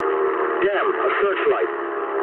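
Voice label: English searchlite mood low; War Thunder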